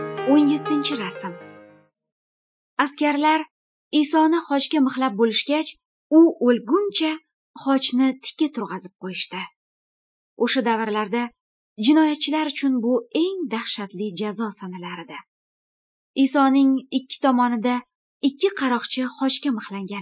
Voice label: good news female uzbek northern